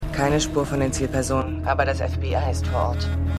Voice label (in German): Agentin Kinderwagen, Stimmproben